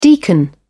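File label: "deacon", en, British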